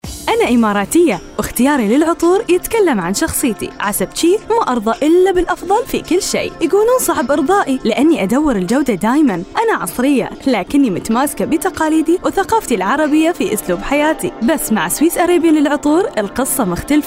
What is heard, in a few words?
UAE, emirati voiceover